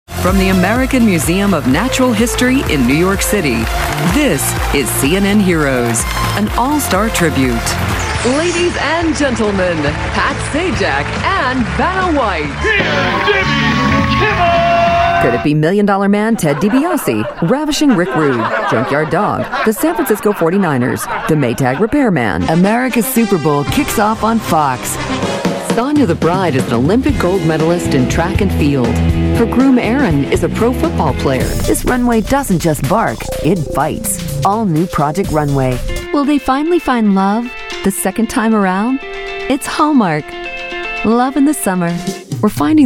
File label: TV show Trailer